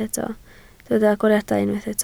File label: "agoriadau", Welsh